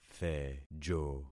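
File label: "thejo", concatenated syllables; Affixing experiment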